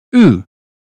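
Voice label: British phonetics sound situation; 英语音标发音（剑桥版）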